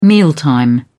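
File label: "mealtime", British English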